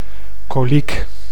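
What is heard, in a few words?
"koliek", Dutch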